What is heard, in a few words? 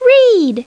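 "read", English; Treasure Galaxy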